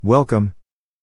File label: Male Welcome Serious Voice